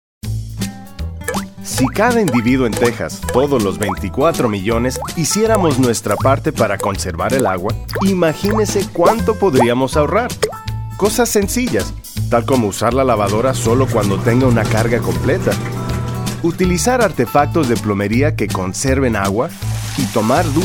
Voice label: water conservation spanish; 2009 psa; radio